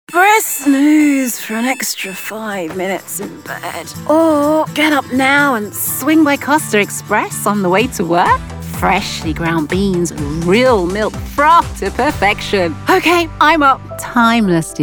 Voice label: commercial